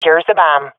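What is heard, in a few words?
sees dropped bomb, swat female; vo; agents; Source Model Packs; Counter Strike Model Pack; CS2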